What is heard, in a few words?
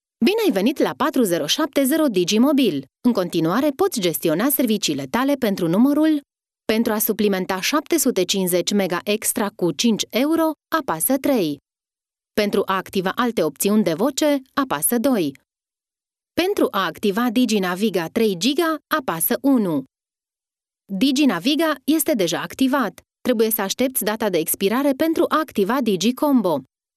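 Romanian, female, IVR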